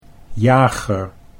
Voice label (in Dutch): "jager", Dutch